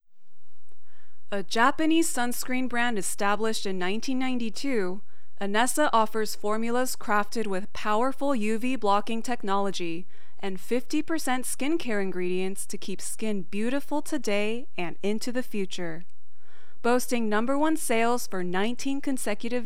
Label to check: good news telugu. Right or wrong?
Wrong